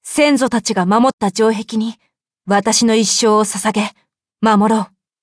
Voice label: upgrade, ja; Cookie Run